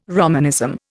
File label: "Romanism", Indian